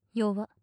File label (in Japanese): "よわ" 近 右, ASMR